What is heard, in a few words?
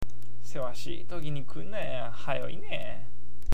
"sewashii", awaji ben